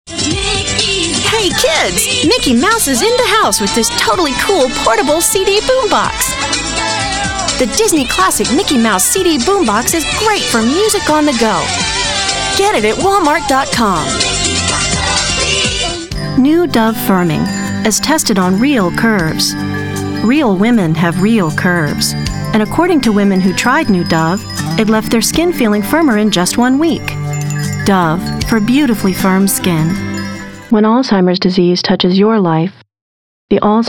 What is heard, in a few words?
Commercial